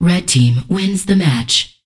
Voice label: Voices Announcer Status; unreal development kit

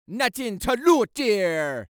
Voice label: Voices Jamaican Nothing To Loot There

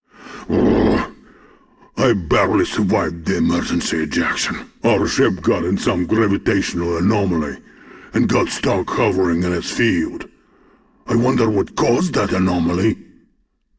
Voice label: Voice Dialog; we are the dwarves gamerip 2016

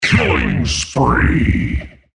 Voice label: Announcer Male Killing Spree

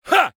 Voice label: Voices Vo Male Fight Attack; Mighty Party

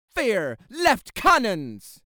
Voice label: Voices Jamaican Fire Left Cannons

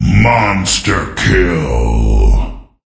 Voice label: Voices Announcer Reward

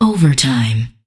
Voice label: Voices Announcer Status

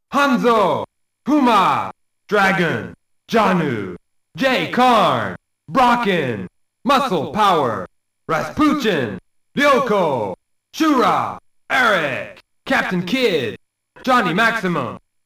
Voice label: Narration Voice; World Heroes 2 Jet

